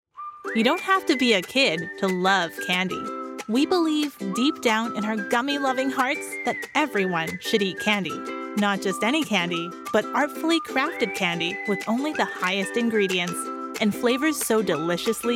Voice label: Explainer Narration

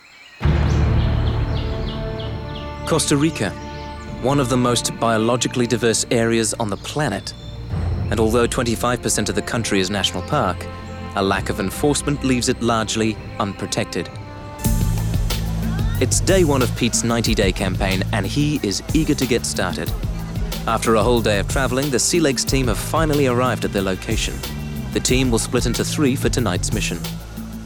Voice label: Narration, en-GB

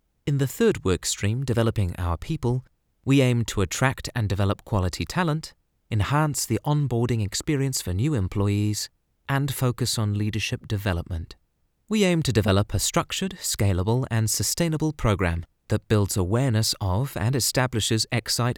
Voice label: Sample Natural; en-GB; Exyte

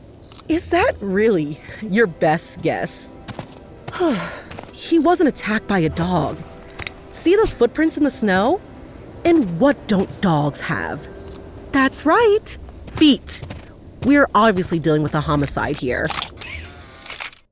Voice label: character, female detective

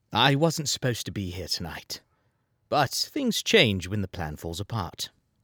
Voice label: British RP Character

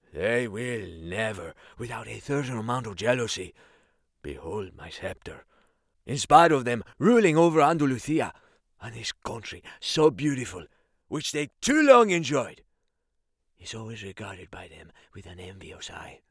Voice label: Distincion Spain

